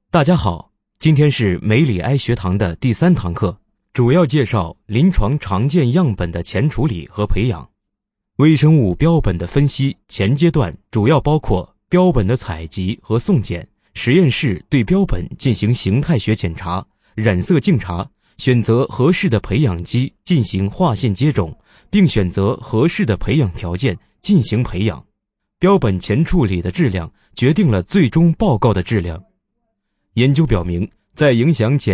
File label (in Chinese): 男66号课件 柔和讲解